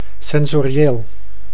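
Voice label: "sensorieel", Dutch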